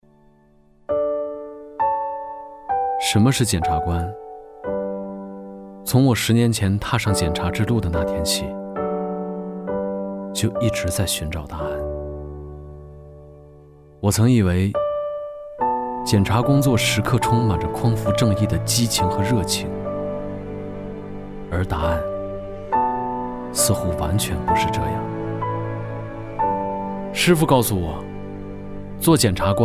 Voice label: 男 走心自述; 我是检察官

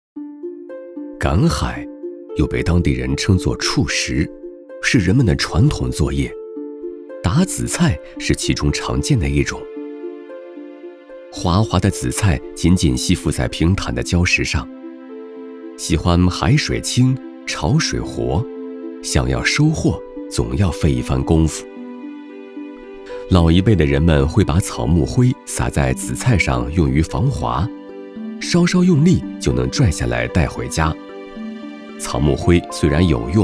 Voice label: 男 舌尖 赶海文案; 紫菜版

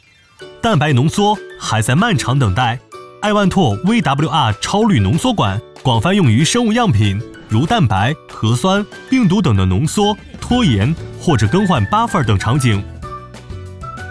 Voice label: 男 MG; 浓缩管

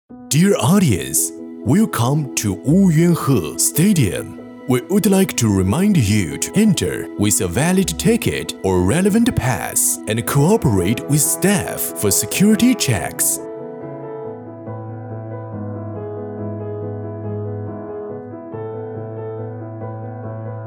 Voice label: 男 英语

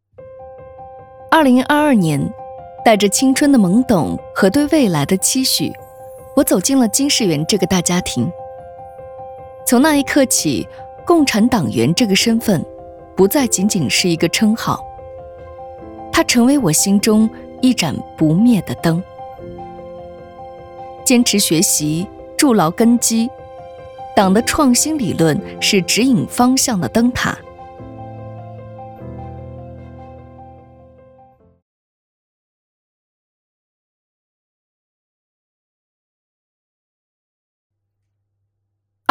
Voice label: 女 党政类

